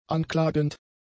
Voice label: "anklagend", German; adjectives